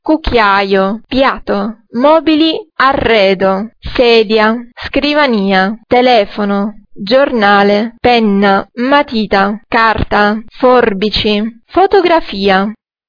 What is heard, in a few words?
italian objects